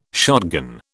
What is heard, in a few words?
"shotgun", British